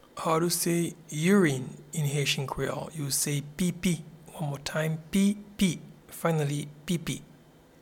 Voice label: Urine in Haitian Creole Pipi

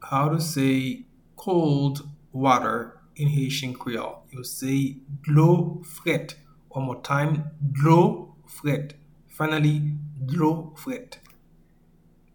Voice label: "Dlo frèt", Haitian Creole; “Cold water” is translated as “Dlo frèt” in Haitian Creole

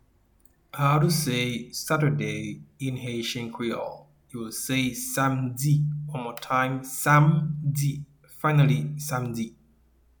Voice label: "Samdi", Haitian Creole pronunciation; “Saturday” is translated as “Samdi” in Haitian Creole

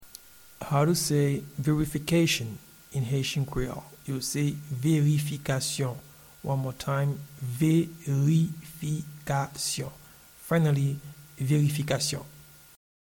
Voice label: Verification in Haitian Creole Verifikasyon

